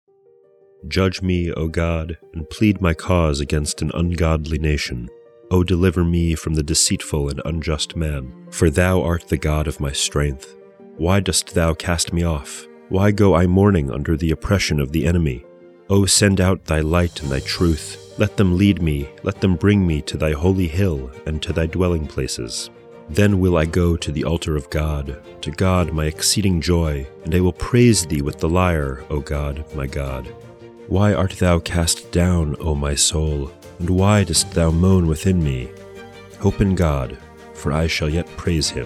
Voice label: poetry and prayer psalm english